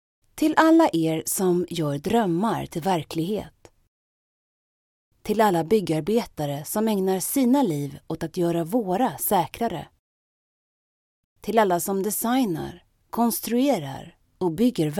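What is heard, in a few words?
Swedish narration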